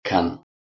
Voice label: "kann", Proto Germanic

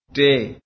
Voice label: "te", Sindhi

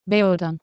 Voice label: "beodan" Anglo Saxon

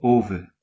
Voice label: "ofen", Anglo Saxon

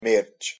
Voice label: "mairch", Scots

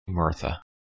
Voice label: "murtha", Proto-Germanic

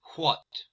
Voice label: "hwat", Proto-Germanic

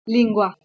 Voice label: "lingua", Latin

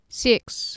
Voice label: "siex", Anglo Saxon

